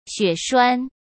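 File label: "血栓", Mandarin Chinese; chinese flashcards; hsk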